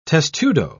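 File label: "testudo", English, British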